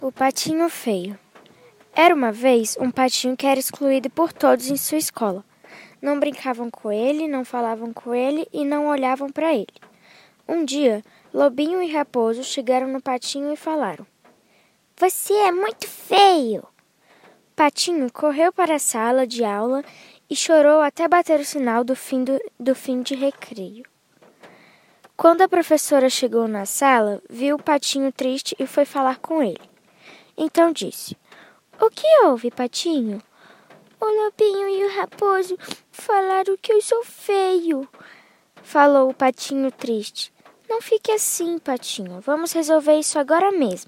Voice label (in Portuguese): AUDIOBOOK